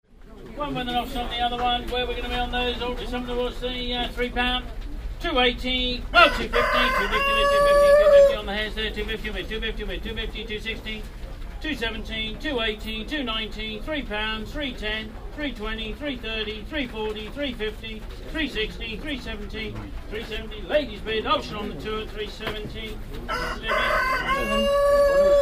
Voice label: auction; campsea